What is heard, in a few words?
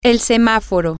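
traffic light, Spanish, female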